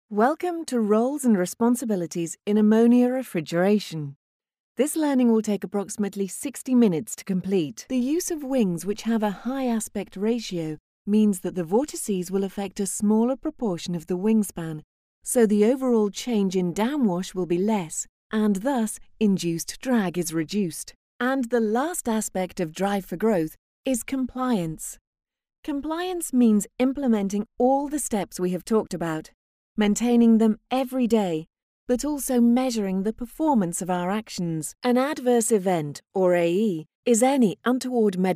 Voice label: ELearning